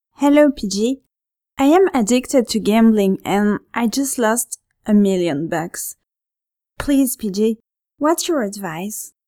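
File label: radio announcer English french accent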